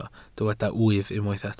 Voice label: "wyf", Welsh

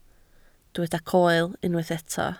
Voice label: "coel", Welsh

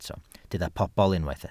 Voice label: "pobl", Welsh

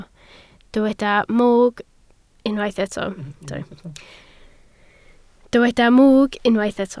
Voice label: "mwg", Welsh

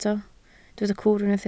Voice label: "cwr", Welsh

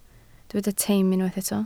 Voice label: "teim", Welsh

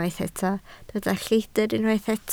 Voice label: "lleidr", Welsh

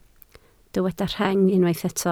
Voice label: "rheng", Welsh

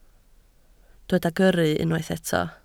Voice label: "gyrru", Welsh

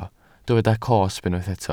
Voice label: "cosb", welsh

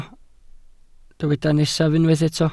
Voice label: "nesaf", Welsh

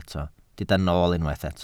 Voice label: "nool", Welsh

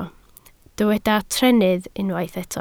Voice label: "trennydd", Welsh

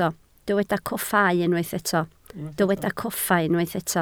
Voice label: "coffa", Welsh

